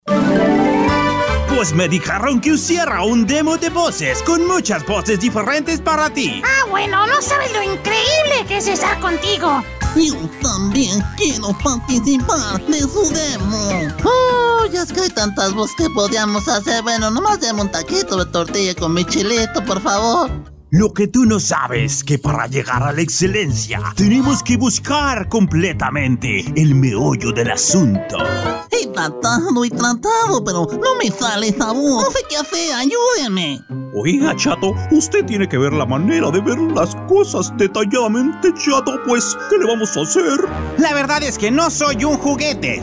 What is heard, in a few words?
Character Spanish